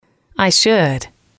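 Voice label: i should, English, female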